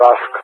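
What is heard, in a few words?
"Tusk", English, GREECE; CallSign; Allied Flight; DCS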